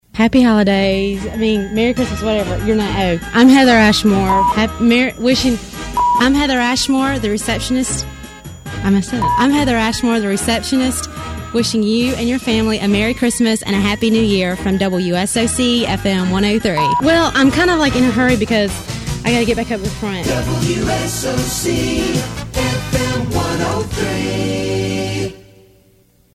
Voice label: Xmas Greeting Receptionist